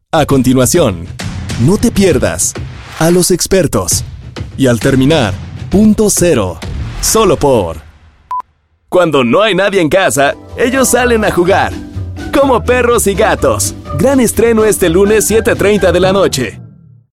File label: spanish mexican promos